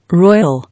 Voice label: "Royal", English